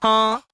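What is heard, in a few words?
"huh", vocal sample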